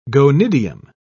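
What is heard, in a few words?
"gonidium", British English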